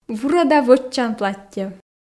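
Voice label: "вурӧда вӧччан платтьӧ", Komi, female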